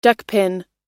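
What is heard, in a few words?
"duckpin", English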